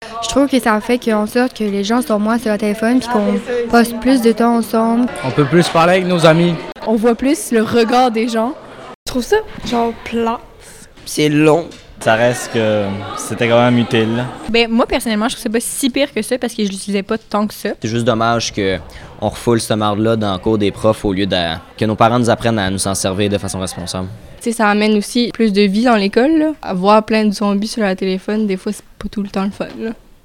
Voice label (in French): VOX POP; interdiction du cellulaire à l'école